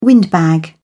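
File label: "windbag", British English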